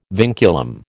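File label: "vinculum", American English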